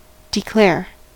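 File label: "declare", American English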